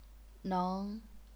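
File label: "neng", second tone, chinese pinyin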